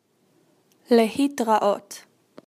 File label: Goodbye Hebrew